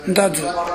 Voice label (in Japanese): "ndazu", minami yamagata